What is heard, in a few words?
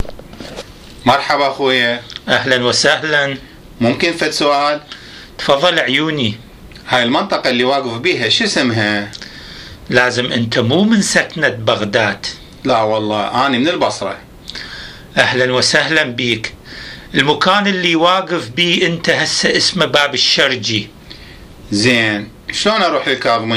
Dialogue about Baghdad Districts, Iraqi Dialect